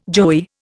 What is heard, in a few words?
"joey", Indian